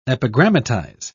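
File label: "epigrammatize", British English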